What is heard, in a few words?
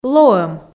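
"лоӧм", Komi, female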